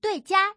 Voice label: pair, female

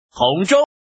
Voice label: man

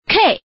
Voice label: Woman

